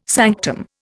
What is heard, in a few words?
"sanctum", Indian